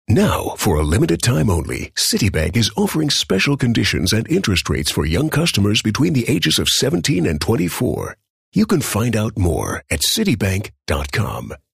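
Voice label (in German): English US Werbung Freundlich; EngUS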